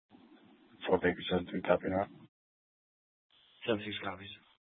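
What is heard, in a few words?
Police Department Dispatch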